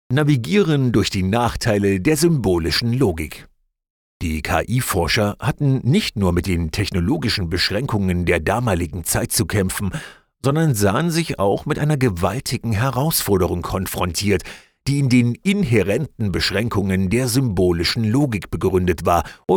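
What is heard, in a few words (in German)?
Audiobook Wie KI unser Leben verändert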